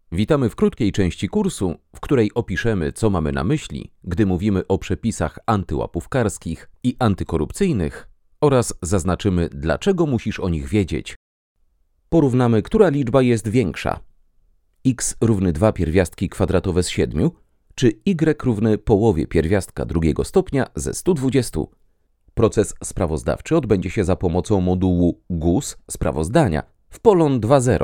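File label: Polish e-learning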